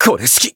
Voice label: damaged jp

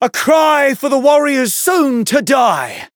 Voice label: Voice variable chant; dunarith

